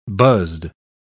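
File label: "buzzed", English, British English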